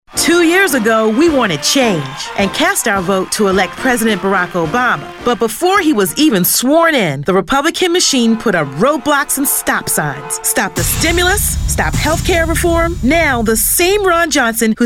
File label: Radio Ad; PFAW